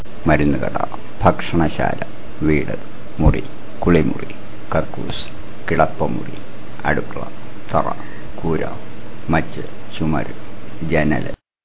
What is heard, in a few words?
malayalam places